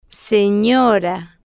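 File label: "senora", spanish, slow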